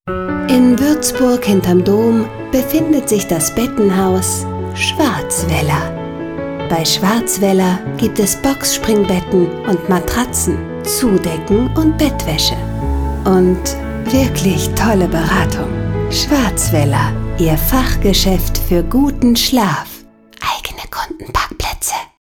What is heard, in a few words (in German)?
Werbung; Schwarzwälder